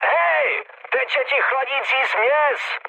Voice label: damaged water, speech cze, mood high; War Thunder